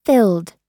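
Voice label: "filled", US English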